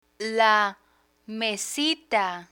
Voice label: "la mesita", Spanish; learn spanish

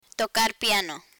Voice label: "tocar piano", Spanish

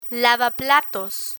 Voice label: "lavaplatos", Spanish

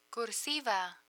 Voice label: "cursiva", Spanish; computer terms

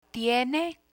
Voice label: "tiene", spanish; emergency phrases

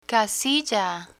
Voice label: "casilla", Spanish; computer vocabulary

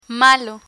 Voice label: "malo", Spanish; learn spanish

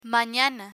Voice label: "manana", Spanish; learn spanish

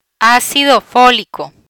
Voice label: "Acido Folico", Spanish; anaesthetics antidotes

